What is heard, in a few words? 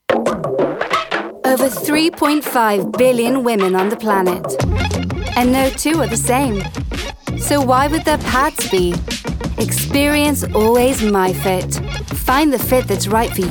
commercial montage